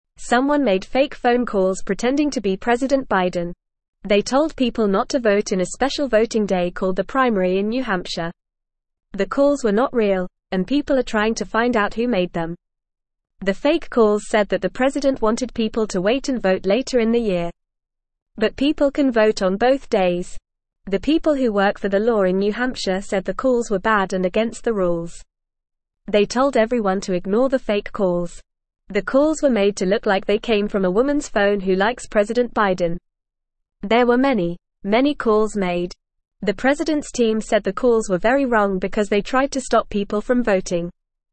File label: Beginner FAST Reading, English; English Newsroom; Fake Calls Pretending to be President Biden